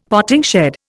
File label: "potting shed", Indian; Dictionary